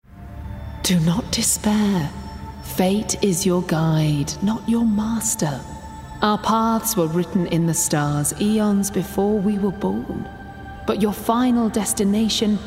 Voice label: Gaming Priestess

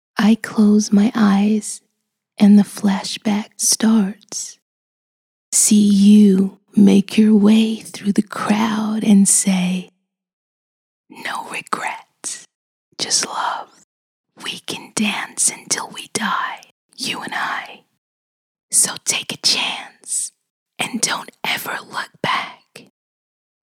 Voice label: ASMR

